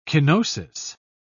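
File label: "kenosis", British English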